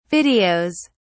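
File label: "videos", British English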